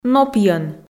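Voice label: "нопйӧн", Komi, female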